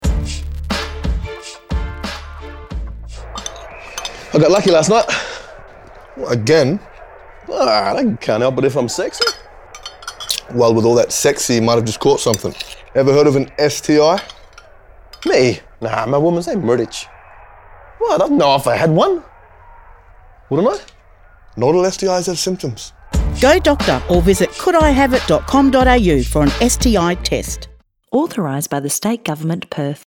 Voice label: Aboriginal sexual health male version radio; 30 sec